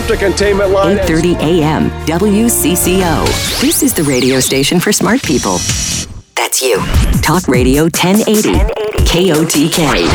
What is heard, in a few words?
tv news image, tv affiliate